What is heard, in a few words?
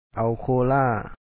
"?aw kho2o la1a", Lao; like coke